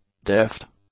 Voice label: "deft", english; adjectives

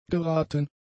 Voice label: "geraten", German; adjectives

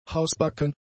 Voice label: "hausbacken", German; adjectives

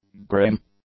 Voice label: "grim", english; adjectives

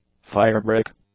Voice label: "firebrick", English; nouns

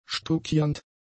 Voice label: "stuckiernd", German; adjectives

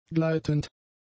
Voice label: "gleitend", German; adjectives

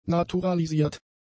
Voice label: "naturalisiert", German; adjectives

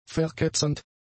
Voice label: "verketzernd", German; adjectives

